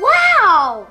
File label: "wow" girl